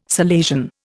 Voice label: "Salesian", Indian